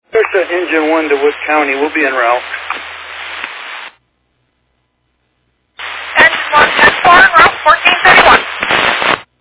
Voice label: Fire Dispatch